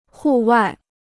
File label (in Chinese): "户外", Chinese